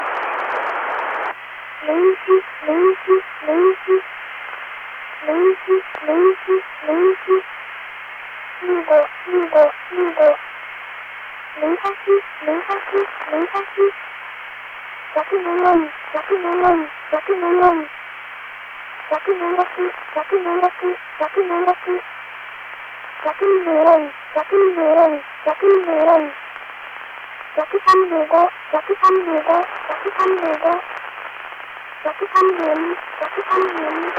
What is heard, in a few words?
Telemetry Voice